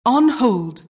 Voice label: announcer generic alert

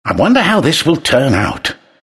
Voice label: announcer battle start; Trine

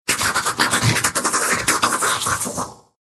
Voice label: chitter, ru; Dota 2

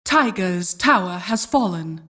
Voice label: announcer tower fall

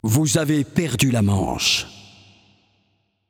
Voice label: announcer French round lost; paladins

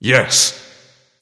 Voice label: Emote Yes; Jackal Knight; Anubis

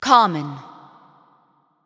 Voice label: Announcer Lobby Card Common; LoreLady

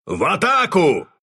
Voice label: attack, ru

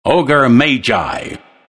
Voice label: announcer char ogre; trine

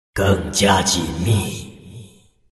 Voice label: move, zh; Dota 2

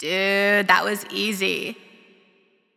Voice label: Announcer Round Won; Paladins

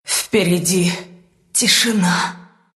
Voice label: death, ru

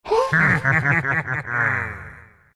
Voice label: happy, zh; Doom

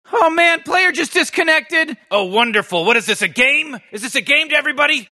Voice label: announcer player disconnects; Rick and Morty

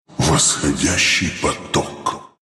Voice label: level up ru; dota2

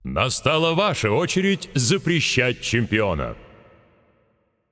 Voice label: Announcer Russian Draft Team Ban

